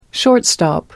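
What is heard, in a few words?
"shortstop", British English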